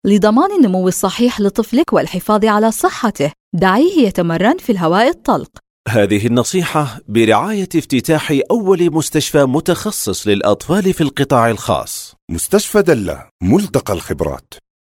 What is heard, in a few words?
Gulf saudi arabia riyadh female